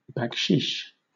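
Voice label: "baksheesh", British English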